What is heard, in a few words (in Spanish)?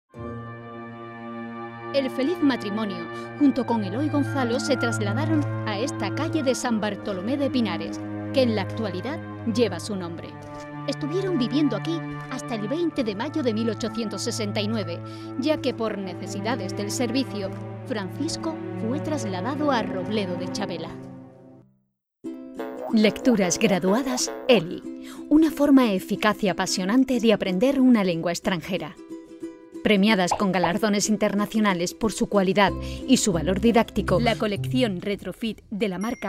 CORPORATIVO, locutora actriz